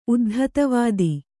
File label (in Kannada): "ಉದ್ಧತವಾದಿ", Kannada